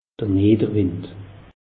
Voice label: "nidderwind", alsace; meteo

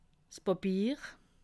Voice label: "papier", alsace

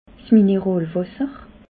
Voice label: "mineralwasser", alsace

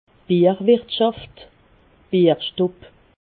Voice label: "bierwirtschaft", alsace

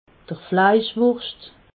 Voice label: "fleischwurscht", alsace

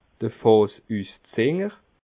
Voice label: "fassuszinder", alsace

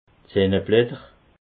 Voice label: "senneblatter", alsace

